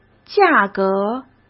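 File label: "价格", Mandarin; chinese flashcards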